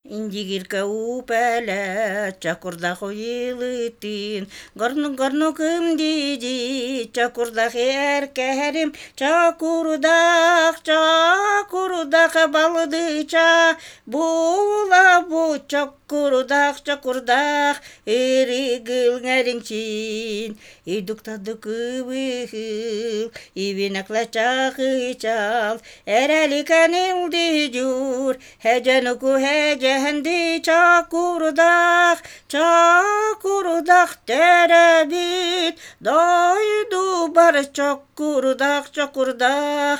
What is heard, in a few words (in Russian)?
Чокурдаах на эвенском языке